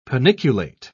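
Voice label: "paniculate", British English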